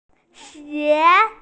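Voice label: "xie2", Mandarin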